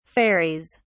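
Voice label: "fairies", British English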